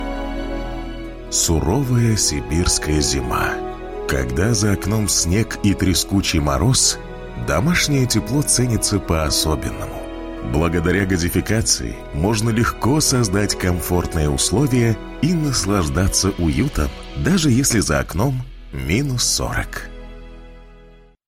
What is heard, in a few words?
Russian voice over short introduction